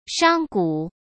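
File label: "商贾", Mandarin Chinese; chinese-flashcards; hsk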